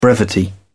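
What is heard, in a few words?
"brevity", British English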